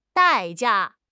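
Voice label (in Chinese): "代价", Chinese; HSK6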